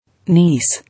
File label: "Niece", English